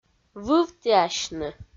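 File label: "вывтіасьны", Komi, female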